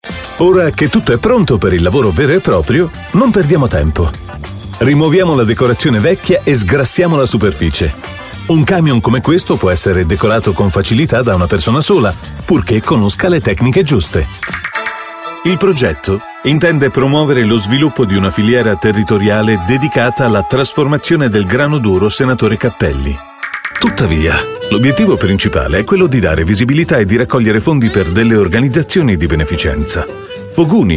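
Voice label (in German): telefonansagen sprecher Italian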